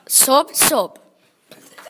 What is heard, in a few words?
"Reindeer", Croatian